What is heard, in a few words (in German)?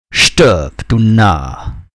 Narr